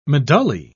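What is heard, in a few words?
"medullae", English, British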